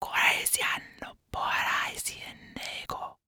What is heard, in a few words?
Horror Scary Human Female Whispering Spell